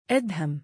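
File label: "2adham" male, Arabic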